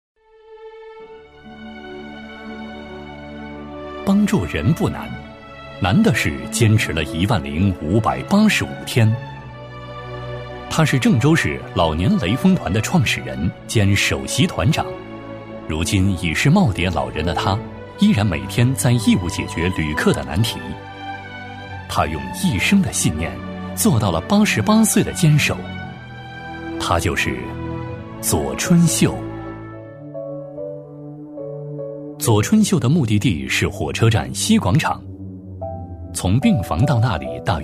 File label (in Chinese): 男 人物专题 深情讲述; 男59-刚柔并济; 质感磁性